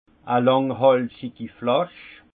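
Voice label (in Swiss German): "langhalsigi", Haut Rhin; vin; bouteille d’alsaceà long col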